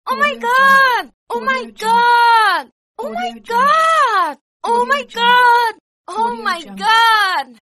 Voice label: oh my god female success

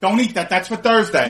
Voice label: italian mom dont eat that sound

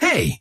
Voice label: "hey" confused

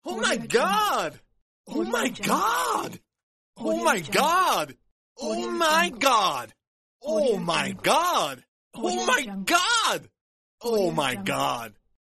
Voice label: male success oh my god

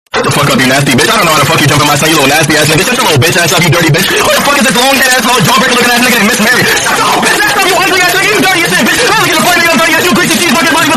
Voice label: loud roast

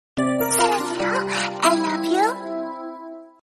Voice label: i love you korean

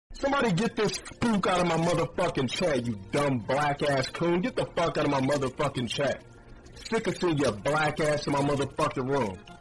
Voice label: get out spook

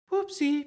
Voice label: ooopsie sound effect

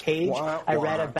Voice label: womp womp reporter

